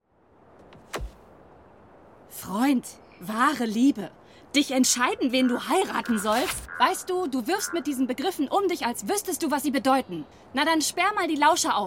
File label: Animation; Prinzessin - aufgebracht / flehend